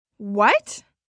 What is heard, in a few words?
What, Reaction, 1 girl, 12 yrs.; Talking; VoiceBox